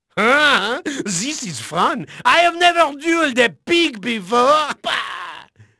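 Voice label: French male, voice; Pirates Of The Caribbean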